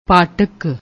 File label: "pattak", Punjabi